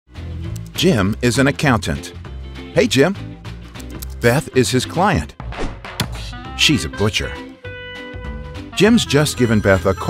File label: TV Commercials